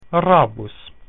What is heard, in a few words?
"grey", Latin